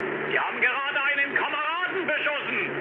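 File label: German player killed ally mood low, speech; War Thunder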